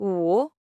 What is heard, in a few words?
Chinese Number